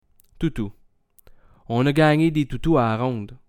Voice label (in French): "toutou", quebecois